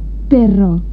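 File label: "perro", Spanish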